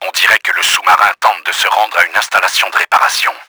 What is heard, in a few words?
sub damaged, french; Battle Engine Aquila; XBOX